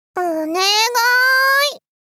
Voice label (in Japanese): おねが～い? 少女×高音域